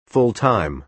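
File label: "full time", American English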